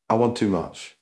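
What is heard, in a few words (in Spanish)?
Voice Acting, Spanish, male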